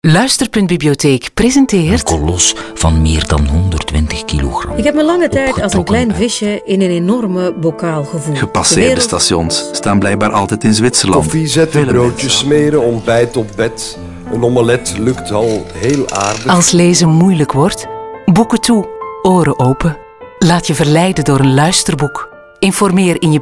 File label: radio